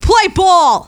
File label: scout battlecry, femscout